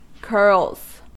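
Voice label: "curls", US English; pronunciations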